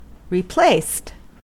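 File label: "replaced", US English; pronunciations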